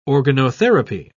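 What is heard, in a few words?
"organotherapy", British English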